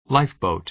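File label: "lifeboat", American English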